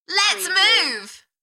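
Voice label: British female Lets move Enthusiastic; beanstalk audio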